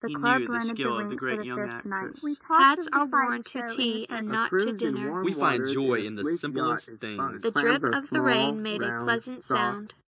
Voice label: anechoic; SiSEC08; SiSEC headgeometry